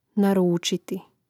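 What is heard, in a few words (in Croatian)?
"naručiti", Hrvatski; mreznik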